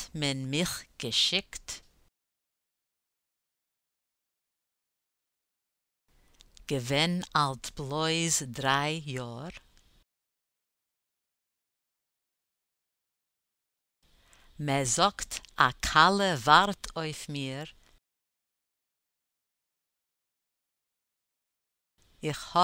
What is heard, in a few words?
tenor pronunciation drill, chorus; Listen and repeat